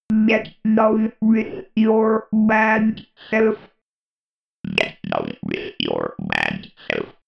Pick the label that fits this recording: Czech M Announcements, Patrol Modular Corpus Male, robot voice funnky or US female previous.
robot voice funnky